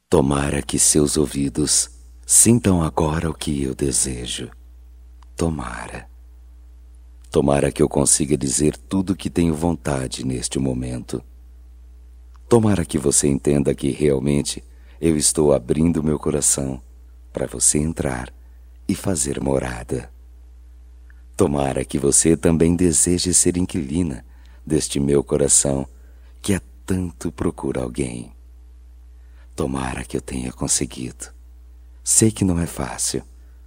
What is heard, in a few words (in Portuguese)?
Conquista Masculino, Só Voz; Telemensagens; Telemensagens 2017